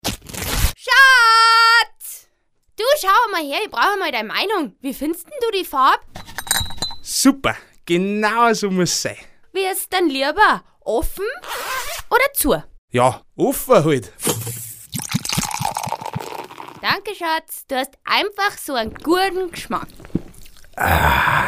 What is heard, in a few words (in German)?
Werbung; Irlbacher